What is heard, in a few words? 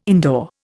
"indoor", Indian